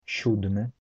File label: polish seventh, polish